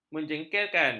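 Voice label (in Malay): "menjengkelkan", Malay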